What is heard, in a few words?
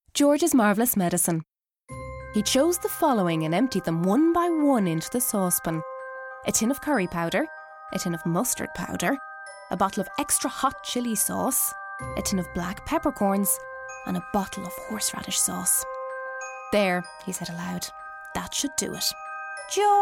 Narrative